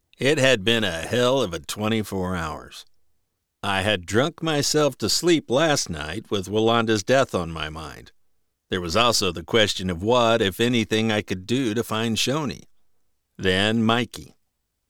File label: Third Person Fiction MF Dialogue